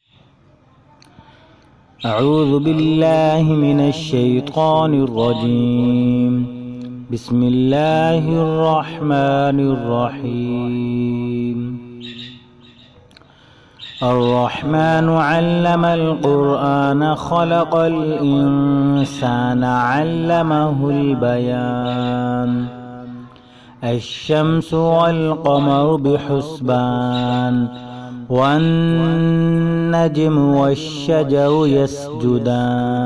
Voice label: Recitation